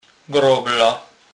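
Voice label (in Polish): "grobla", Kurpie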